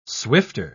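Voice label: "swifter", British English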